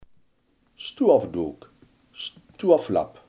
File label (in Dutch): "stofdoek", Limburgs